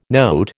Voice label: "note", American

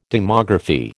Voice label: "demography", American

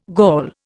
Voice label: "Gaul", Indian

